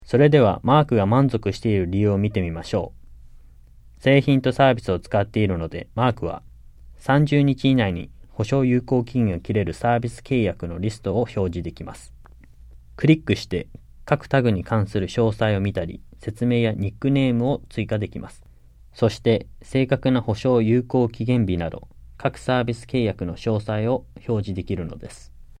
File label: JAPANESE; delltranslations